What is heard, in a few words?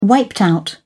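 "wiped out", British English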